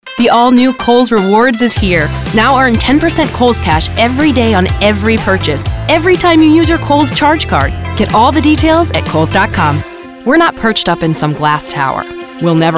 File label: Female Commercial